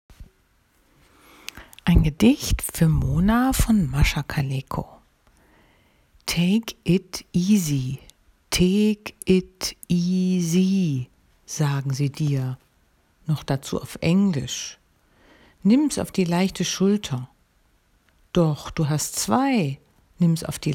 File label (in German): Sprachnachricht